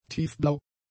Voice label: "tiefblau", German; adjectives